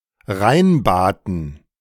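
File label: "reinbaten", German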